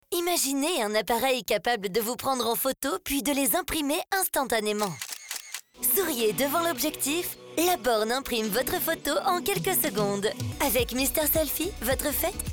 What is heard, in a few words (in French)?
Demo Publicites; Voix off féminine pour publicités radio et tv